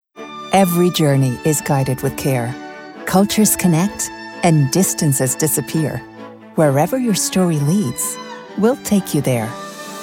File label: spot, english commercial voice over; Delta